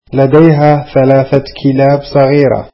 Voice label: arabic she has three small dogs

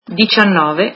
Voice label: nineteen, italian

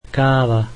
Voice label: slovak coffee

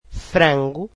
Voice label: "chicken", portuguese

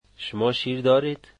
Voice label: do you have milk, dari

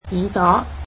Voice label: ear, cantonese

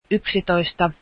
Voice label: eleven, Finnish

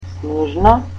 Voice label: "snowy", Macedonian

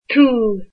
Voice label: you, irish

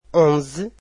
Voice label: "eleven", Portuguese

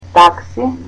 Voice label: "taxi", Macedonian

